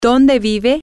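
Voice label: Spanish, where do you live, female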